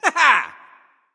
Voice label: grunt; smite; apollo